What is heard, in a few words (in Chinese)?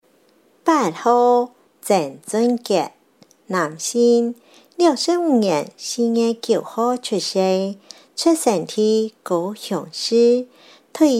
客語; 高雄市; 高雄市市長議員有聲選舉公報; 第七選區